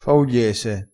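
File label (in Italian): "faugliese", vocabolario dialettale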